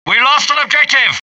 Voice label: british failure; dialogue; mohaa

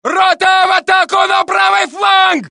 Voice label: Russian; mohaa

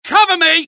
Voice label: reload, dialogue UK; mohaa

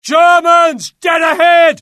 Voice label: alert, UK; mohaa; dialogue

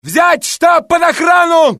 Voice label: Russian; mohaa; dialogue

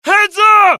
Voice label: alert, dialogue generic US; mohaa

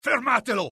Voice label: alert, dialogue IT; mohaa; attack

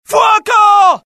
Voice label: alert, dialogue IT; mohaa; attack

